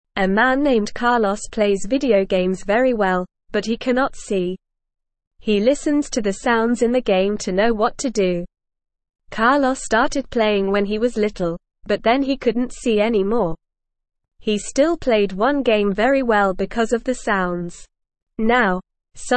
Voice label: Beginner NORMAL Reading, English